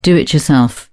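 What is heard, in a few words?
"do-it-yourself", British English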